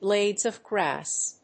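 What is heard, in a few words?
"blades of grass", English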